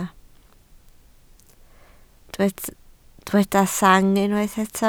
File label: "sang", Welsh